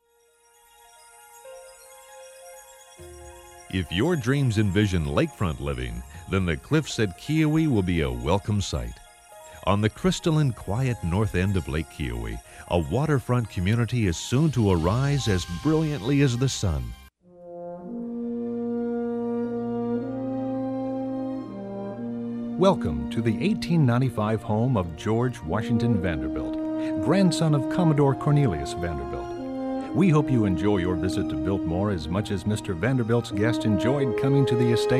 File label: Voice Demo